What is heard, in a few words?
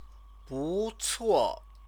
"bucuo", Chinese